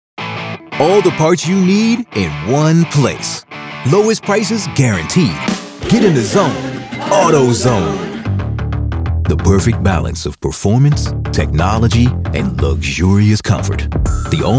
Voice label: COMMERCIAL